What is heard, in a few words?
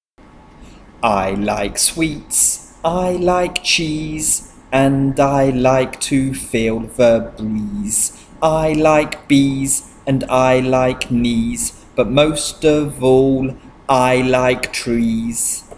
Medial Long Vowels Chant ee